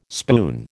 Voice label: "spoon", American

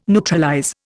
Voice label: "neutralize", Indian

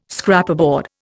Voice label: "Scraperboard", Indian

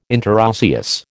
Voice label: "interosseous", American

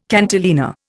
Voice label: "cantilena", Indian

